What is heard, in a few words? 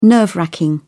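"nerve-racking", British English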